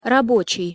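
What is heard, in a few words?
"rabocij", Russian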